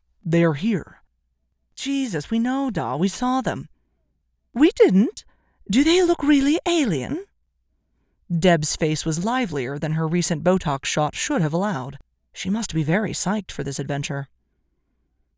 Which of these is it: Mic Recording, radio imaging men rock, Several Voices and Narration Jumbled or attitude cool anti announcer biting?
Several Voices and Narration Jumbled